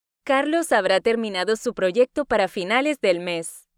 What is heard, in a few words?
Spanish Listening; Spanish Test